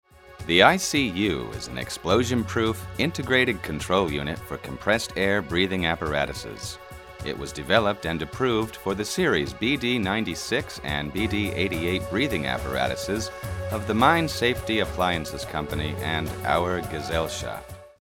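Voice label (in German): amerikanisch, Telefonansagen männlich; Sprecher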